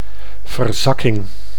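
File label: "verzakking", Dutch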